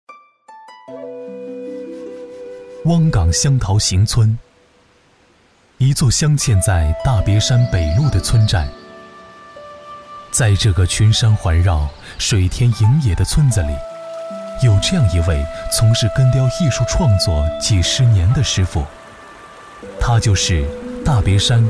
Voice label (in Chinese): 男 纪录片 温柔醇厚